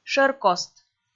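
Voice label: "шӧркост", Komi, female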